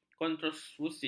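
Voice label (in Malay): "kontroversi", Malay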